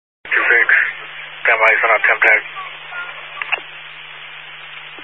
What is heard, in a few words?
Highway Patrol, Phoenix Metro West Area, scanner